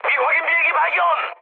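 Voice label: Korean enemy detected mood high; speech; War Thunder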